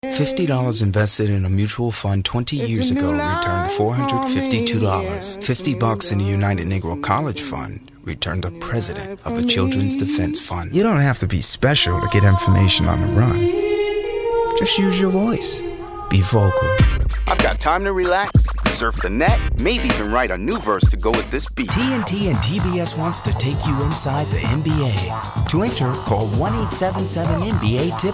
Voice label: commercial